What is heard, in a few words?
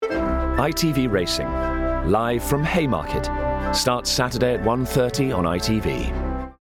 Promo; ITV